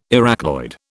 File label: "Arachnoid", British